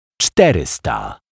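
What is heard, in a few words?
"czterysta", Polish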